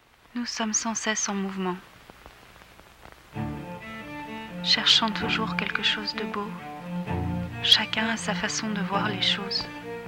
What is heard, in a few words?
NOSTALIGIC FR